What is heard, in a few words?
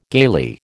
"gaily", American